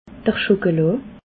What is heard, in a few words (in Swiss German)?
"schokola", alsace